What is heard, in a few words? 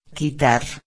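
"quitar", Spanish; ortografia; heterosemanticos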